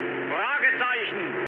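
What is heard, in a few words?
German enemy detected mood med, speech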